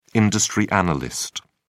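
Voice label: "industry analyst", British English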